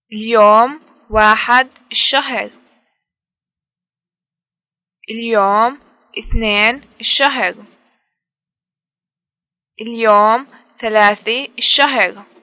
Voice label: dates the first day of the month, arabic levantine